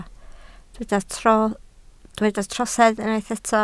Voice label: "trosedd", Welsh